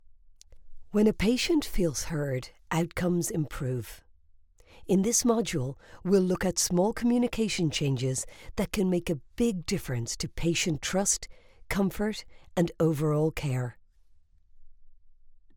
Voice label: Healthcare, E-Learning, Irish female voiceover

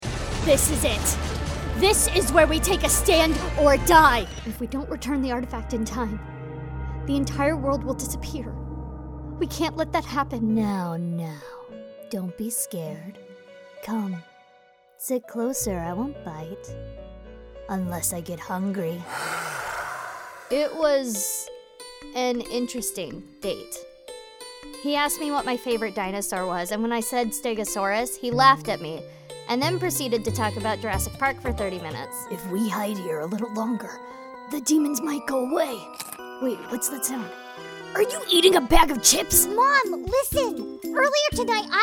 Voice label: Character

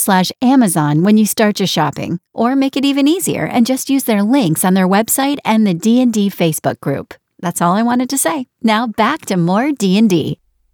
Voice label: Podcast Promo

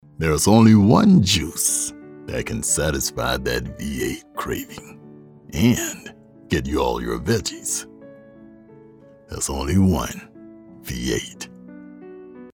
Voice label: JUICE COMMERCIAL; CAMPBELLS V 8

